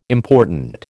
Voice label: "important", American